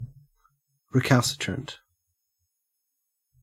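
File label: "recalcitrant", Australian English; pronunciations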